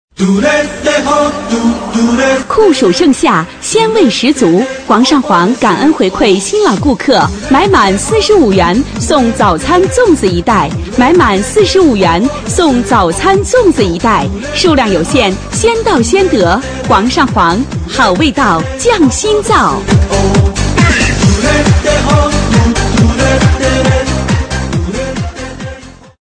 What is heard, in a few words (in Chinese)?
女 促销; 煌上煌